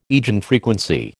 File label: "eigenfrequency", American